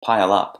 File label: "pile up", English